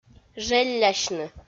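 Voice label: "желлясьны" Komi, female; audio dict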